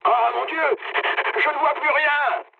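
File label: searchlite, mood low, French; War Thunder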